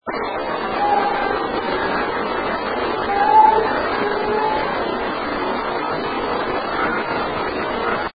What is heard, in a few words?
female voice